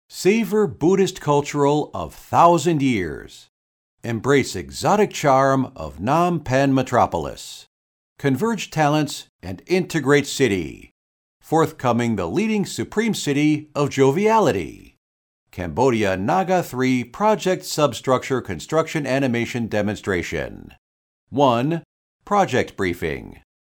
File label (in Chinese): 地下工程施工动画演示 英文配音稿; NAGA3